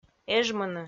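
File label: "эжмыны" Komi, female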